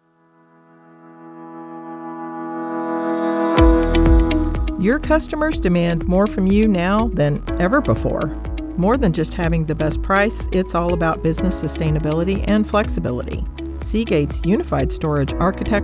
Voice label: with Music; Seagate

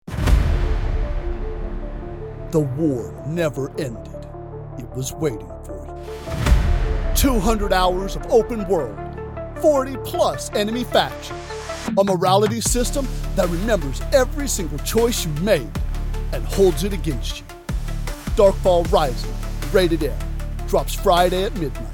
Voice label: Commercial; Darkfall Revise

